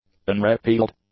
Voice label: "unrepealed", english; adjectives